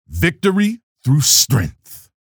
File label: chatter neutral; keel